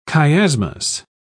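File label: "chiasmus", British English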